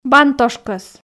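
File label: "бантошкӧс", Komi, female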